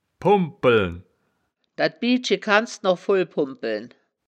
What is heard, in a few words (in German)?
"pumbpeln", Wittlicher; das wittlicher woerterbuch; woerterbuch vertonung